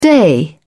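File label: "day", English, British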